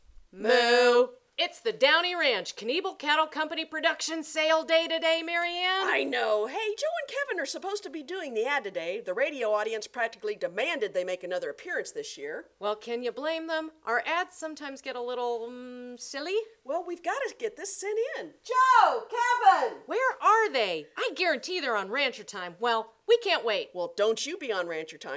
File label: friday ad